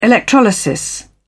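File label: "electrolysis", British English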